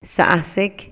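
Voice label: Maya, male; EMOCIONES Y VALORES; INALI; MayaYuc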